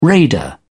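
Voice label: "raider", British English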